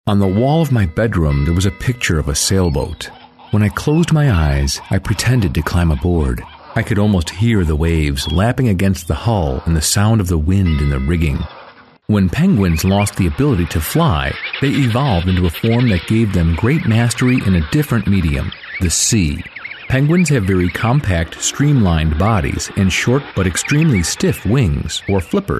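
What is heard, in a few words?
English, American, male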